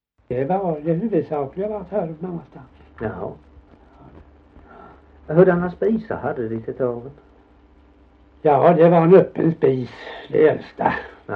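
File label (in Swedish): Gällared Halland, dialekter; Folkminnen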